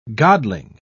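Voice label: "godling", British English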